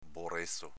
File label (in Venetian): "borezo", Veneto